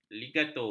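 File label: "ligatur", Malay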